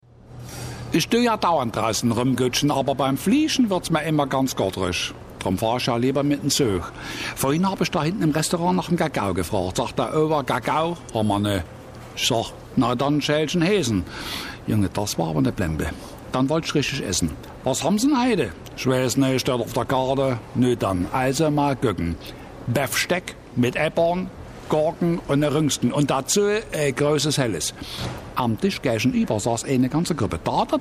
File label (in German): Sachse; Hörproben